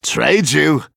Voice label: Male Human Hero Power